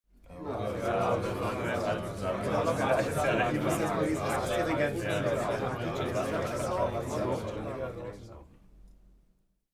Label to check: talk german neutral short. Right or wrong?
Right